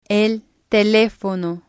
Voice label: "el telefono", spanish